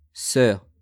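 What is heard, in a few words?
"soeur", French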